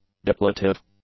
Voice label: "depletive", english; adjectives